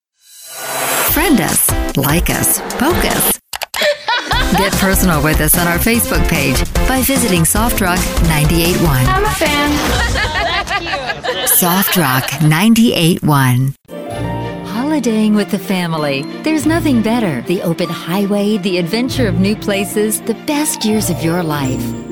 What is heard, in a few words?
USA Female